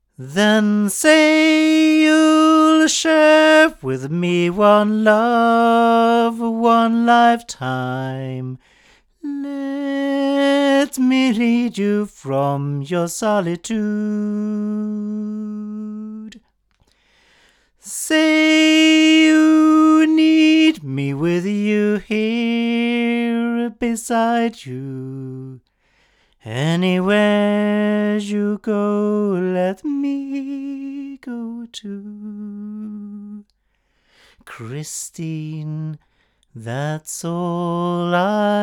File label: male; Milab LSR-1000